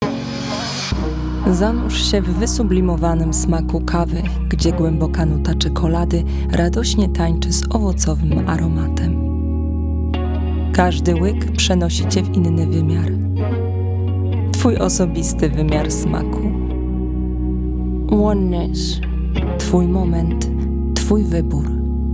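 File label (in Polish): Polish, Commercial; GoLocalise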